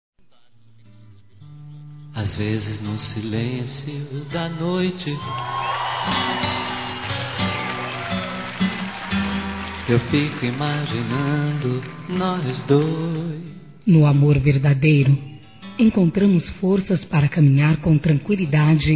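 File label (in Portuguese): NEUTRO, female, Dia Dos namorados; Telemensagens 2017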